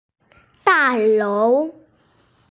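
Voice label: "dalou", Mandarin Chinese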